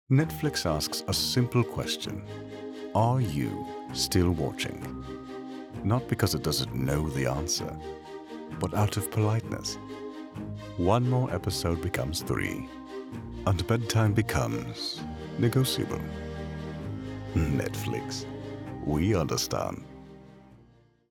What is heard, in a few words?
British; Netflix